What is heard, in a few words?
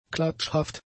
"klatschhaft", German; adjectives